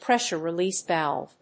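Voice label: "pressure release valve", English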